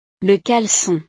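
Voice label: "le calecon", French; ropa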